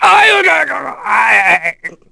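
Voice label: voice Dead, Korean; heroes; Phillop; kings raid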